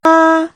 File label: "Ba" Chinese